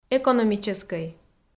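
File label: "экономическӧй", Komi, female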